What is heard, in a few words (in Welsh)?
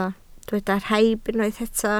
"rhaib", Welsh